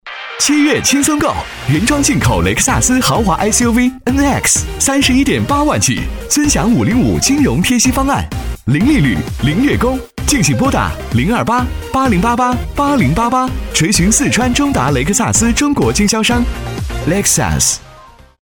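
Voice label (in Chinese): 男 广告（积极 年轻 激情）; 雷克萨斯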